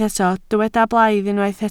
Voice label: "blaidd", Welsh

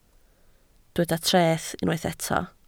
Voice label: "treth" Welsh

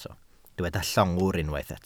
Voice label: "llongwr", Welsh

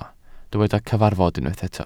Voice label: "cyfarfod" Welsh

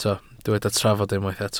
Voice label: "trafod" Welsh

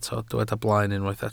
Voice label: "blaen", Welsh

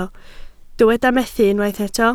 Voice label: "methu" Welsh

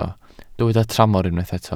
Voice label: "tramor", Welsh

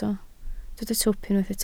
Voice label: "twp", Welsh

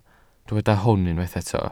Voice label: "hwn" Welsh

